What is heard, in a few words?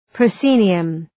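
"proscenium", English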